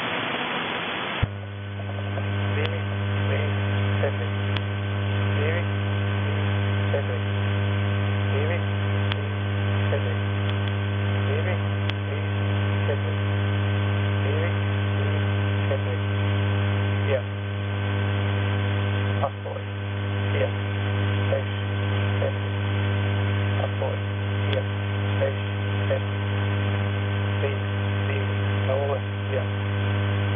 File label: Latvian Numbers Station, 27005kHz; USB; 27055; HDSDR